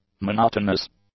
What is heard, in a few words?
"monotonous", English; adjectives